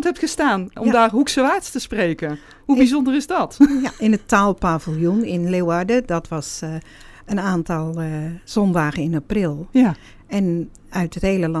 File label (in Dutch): Dialectgroep